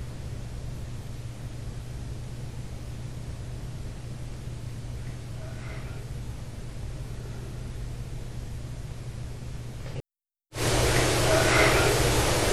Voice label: break the habit amplified and filtered; Stanton Riverton